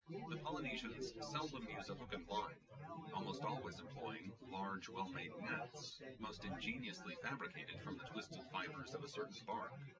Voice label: test male synthconv 130ms 5cm src; SiSEC08; SiSEC underdetermined